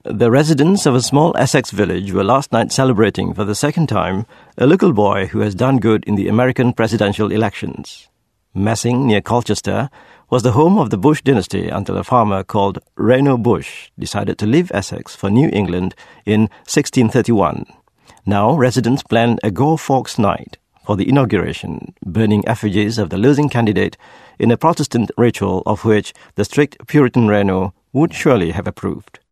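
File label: Malay, English; Malay, Male, Home Studio, 30s-40s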